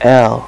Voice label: "l" male, alphabet; Web Clip Empire 150,000